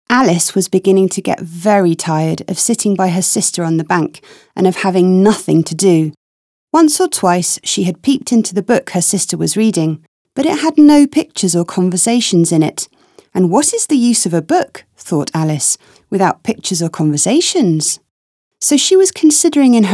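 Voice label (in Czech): angličtina, English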